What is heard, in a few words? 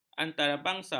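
"antarabangsa", Malay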